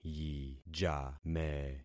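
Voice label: "yijame", concatenated syllables; Affixing experiment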